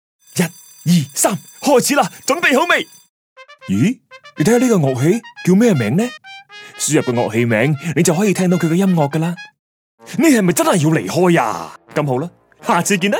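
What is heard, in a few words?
Canto toys, Cantonese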